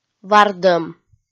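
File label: "вардӧм", Komi, female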